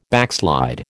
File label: "backslide", American